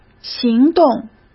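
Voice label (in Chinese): "行动", Mandarin Chinese; chinese-flashcards; hsk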